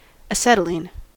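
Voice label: "acetylene", US English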